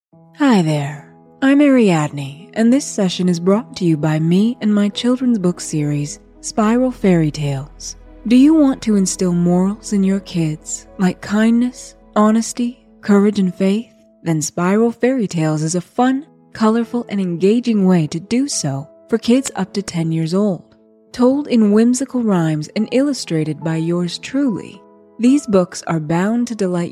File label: magical forest lucid dreaming guided sleep hypnosis with binaural beats